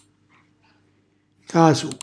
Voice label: "casu", Calabrese; cacio, formaggio

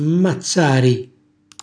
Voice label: "mmazzari", Calabrese; ammazzare, uccidere; cucina calabrese; Glossario

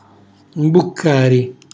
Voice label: "mbuccari", Calabrese; cucina calabrese; Glossario

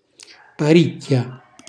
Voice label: "paricchia", Calabrese; cucina calabrese; Glossario

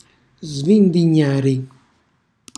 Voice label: "svindignari", Calabrese; cucina calabrese

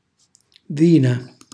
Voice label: "vina", calabrese; sorgente, polla; cucinacalabrese; glossario

